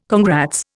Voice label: "congrats", Indian